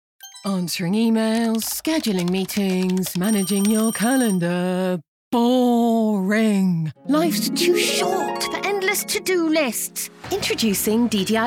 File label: Commercial, Female Voice Over